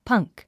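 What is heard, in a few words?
"punk", English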